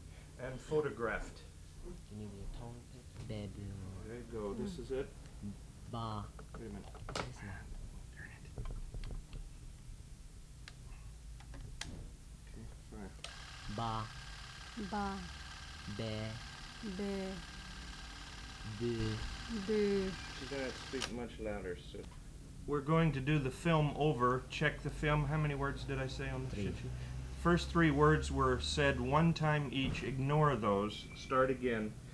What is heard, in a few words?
Lisu Vowels